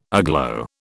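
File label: "aglow", British English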